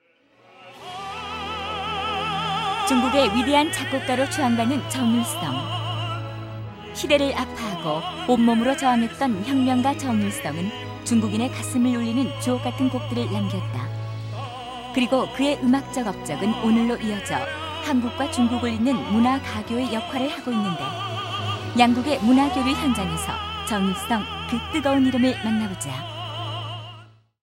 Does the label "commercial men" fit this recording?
No